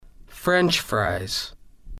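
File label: french Fries, slow; english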